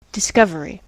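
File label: "discovery", US English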